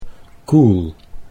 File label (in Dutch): "koel", Dutch